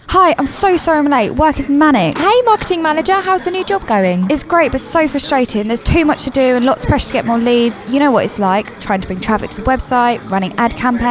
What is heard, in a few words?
Advert, Coffee Shop; Sunbears